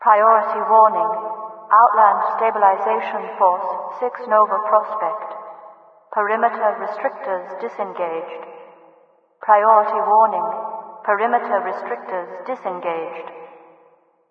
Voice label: prison perimeter restrictors, overwatch city voice; Garry's Mod; hl2